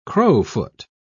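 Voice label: "crowfoot", British English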